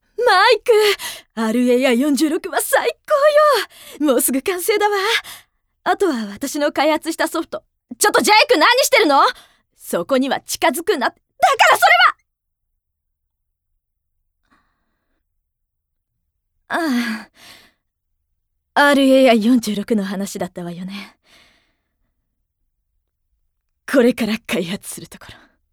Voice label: 科学者お姉さん

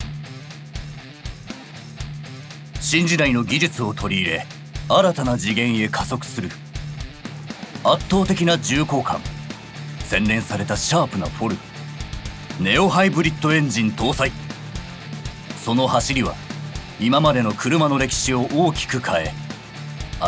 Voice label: NA クール BGM

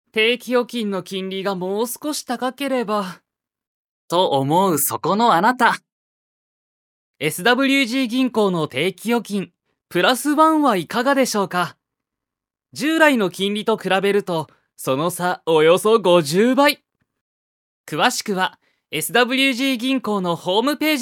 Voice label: ナレーション（ナチュラル）

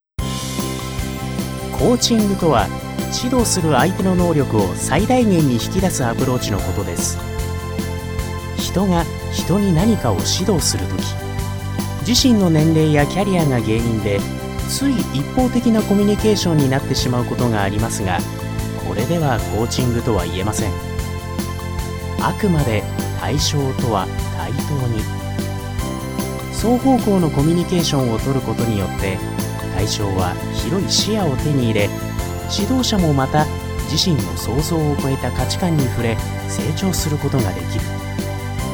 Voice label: ナレーション

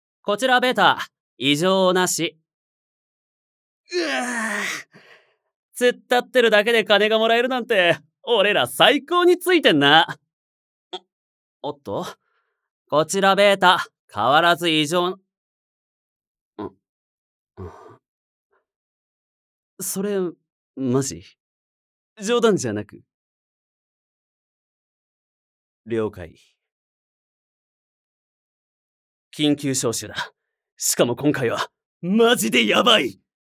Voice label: 陽気な男性

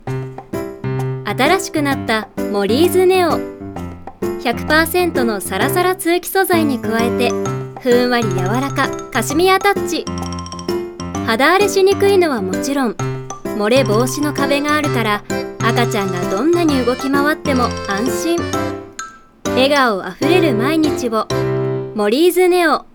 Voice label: ナレーション

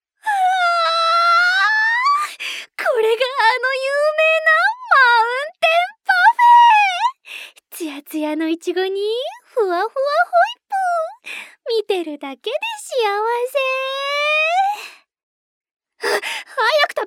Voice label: おてんば主人公

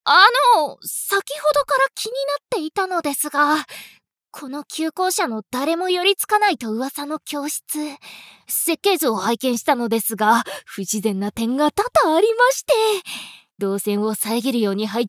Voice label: 学園ミステリー オタク女子

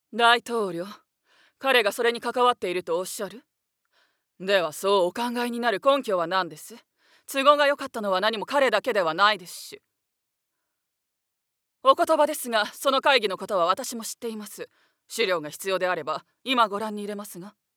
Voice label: 外画

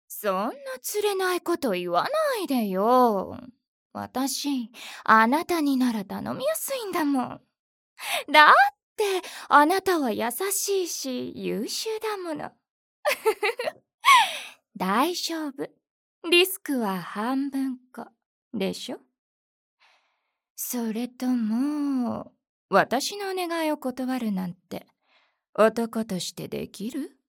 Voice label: お姉さん

